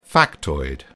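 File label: "factoid", British English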